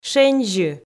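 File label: "шензьы", Komi, female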